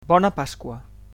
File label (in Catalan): easter, catalan